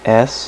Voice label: "s" male, alphabet; Web Clip Empire 150,000